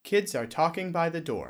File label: neutral